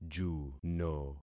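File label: "juno", concatenated syllables; Affixing experiment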